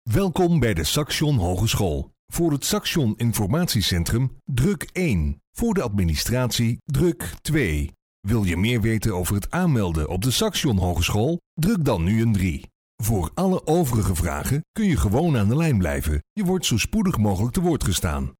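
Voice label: Dutch male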